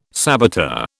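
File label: "saboteur", British English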